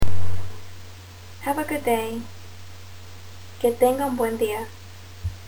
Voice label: Have a good day Spanish

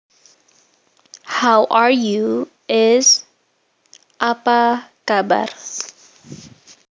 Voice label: How are you Indonesian

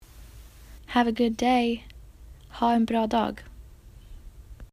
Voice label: Have A Good Day Swedish

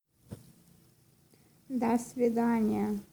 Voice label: Goodbye Russian

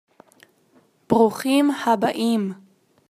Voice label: Welcome Hebrew

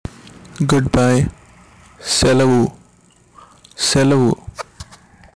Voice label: good bye Indian Telugu

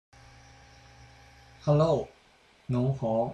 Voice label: Hello China Shanghainese

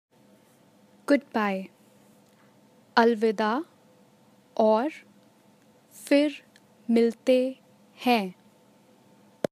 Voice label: Goodbye Hindi

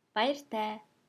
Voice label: Goodbye Mongolian

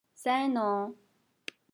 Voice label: Hello Mongolian

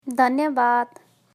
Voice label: Thank you Nepali

